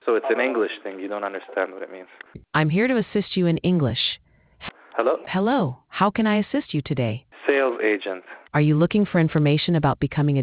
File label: conversation